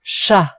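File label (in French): "chat", French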